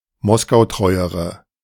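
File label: German "moskautreuere"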